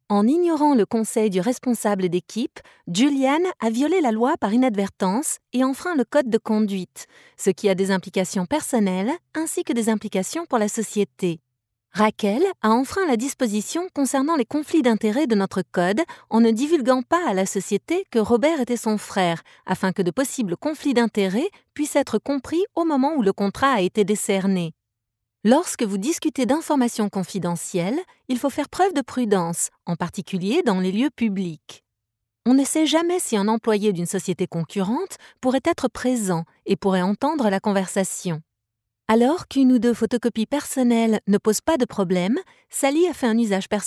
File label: french e-learning